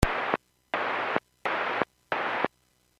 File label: Airports 122.7, Radio; KSTE